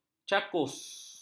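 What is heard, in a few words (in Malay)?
"cakus", Malay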